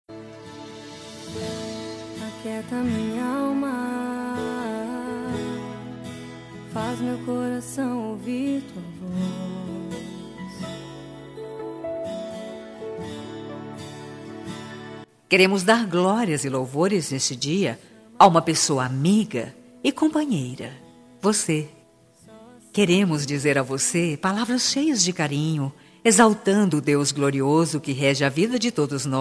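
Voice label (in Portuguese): birthday EVANGELICO PLURAL female, telemensagem evangelica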